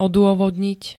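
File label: "odôvodniť", Slovak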